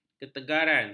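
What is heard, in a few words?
"ketegaran", Malay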